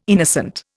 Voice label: "innocent", Indian